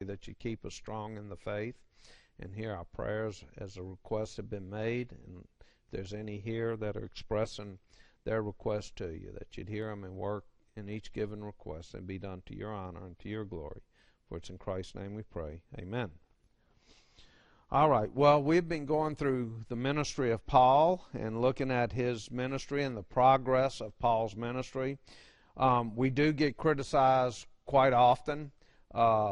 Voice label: English